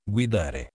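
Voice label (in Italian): "guidare", italiano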